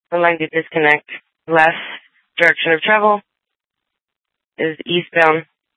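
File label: Sheriff, Parks, Sheriff radio scanner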